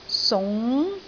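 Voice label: "sung2", Cantonese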